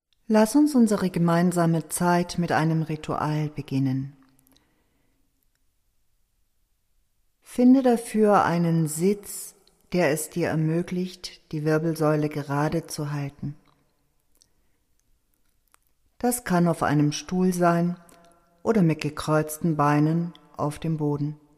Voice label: chakra meditation